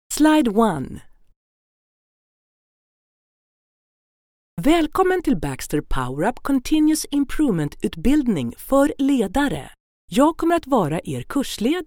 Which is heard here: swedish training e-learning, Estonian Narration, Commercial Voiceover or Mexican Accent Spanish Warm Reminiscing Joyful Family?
swedish training e-learning